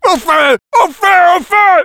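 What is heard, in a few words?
on fire, french; Sniper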